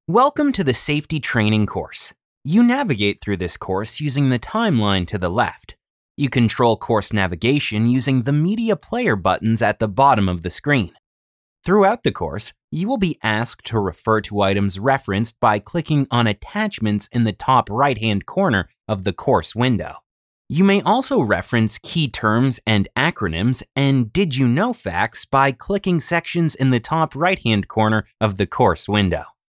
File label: eLearning